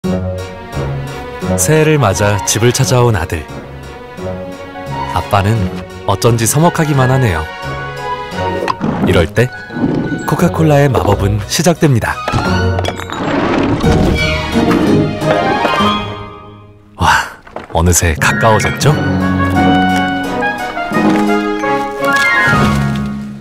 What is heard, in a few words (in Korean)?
차분한 진중한 30대; 코카콜라; 코카 콜라 2022년 리얼매직